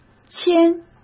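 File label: "千", Mandarin Chinese; hsk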